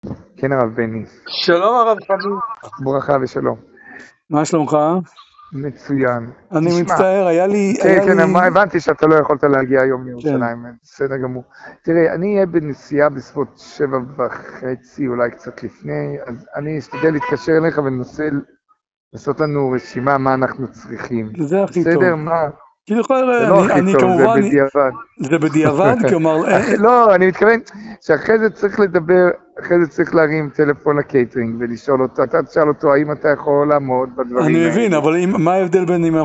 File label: Call recording